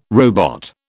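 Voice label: "robot", British English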